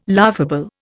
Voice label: "laughable", Indian